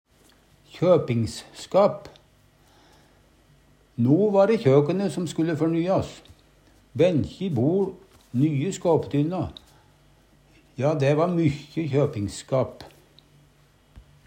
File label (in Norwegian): kjøpingskap, Numedalsmål